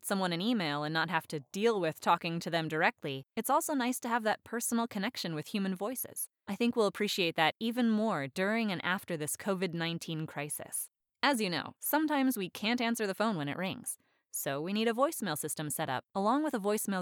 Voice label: Telephone; Telephone Day